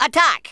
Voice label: "FIREBALL", Double-Oh-Seven; Worms Armageddon